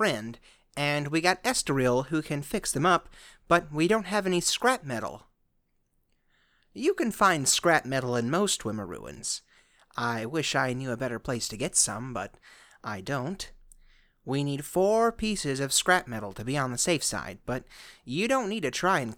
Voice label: bosmer, wood elf voice auditions